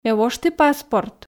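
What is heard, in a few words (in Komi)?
ме вошті паспорт, Komi, female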